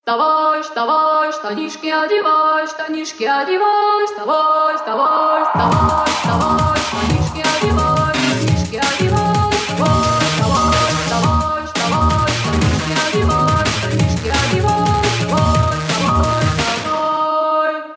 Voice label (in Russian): budil'nik vstavay, ringtones